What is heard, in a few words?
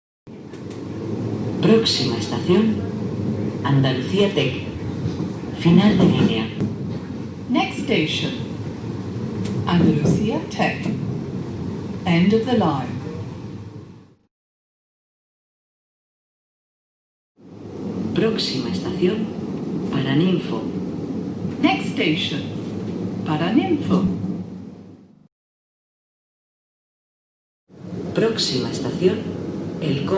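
British English; generico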